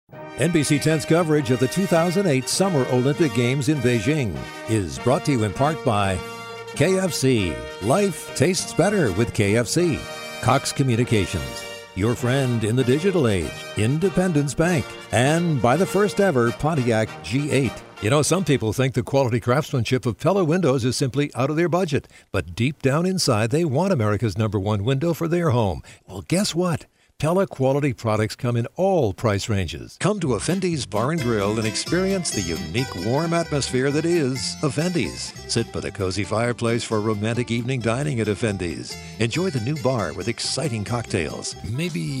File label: Commercial VO